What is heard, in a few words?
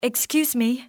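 excuse me, english female; XBOX; civ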